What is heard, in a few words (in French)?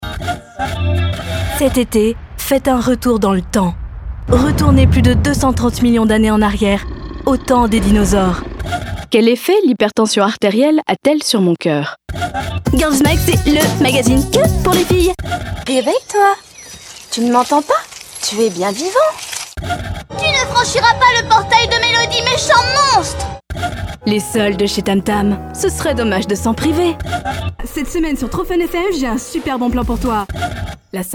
Voix francophone